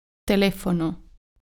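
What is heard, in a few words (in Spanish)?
"telefono", Spanish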